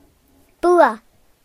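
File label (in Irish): "win", Irish; gcompris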